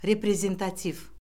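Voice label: "reprezentativ", Azerbaijani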